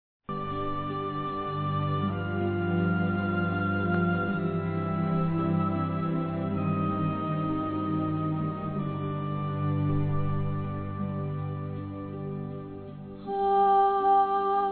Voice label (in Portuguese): Neutro Católico Masculino, Telemensagens; ANIV. Evangelico